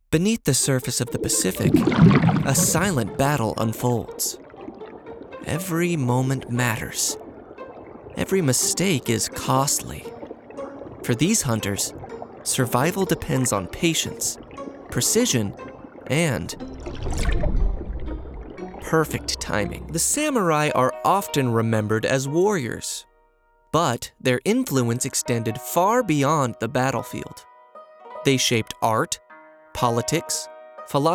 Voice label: Narration; Narration Demo